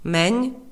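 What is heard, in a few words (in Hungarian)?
"menj", Hungarian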